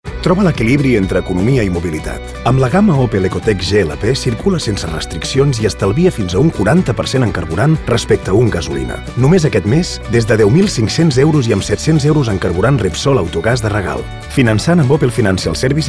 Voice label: catalan car comercial; ideasonora